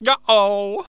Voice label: Uh Oh